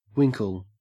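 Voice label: "winkle", Australian English; pronunciations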